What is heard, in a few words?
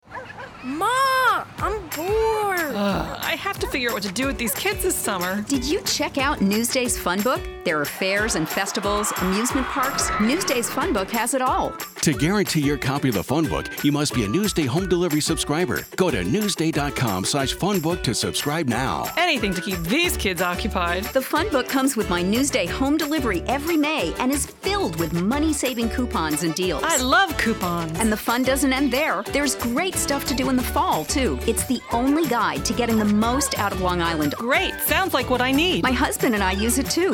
radio; FunBook